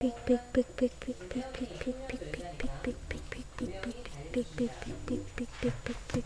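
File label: "pick", spoken word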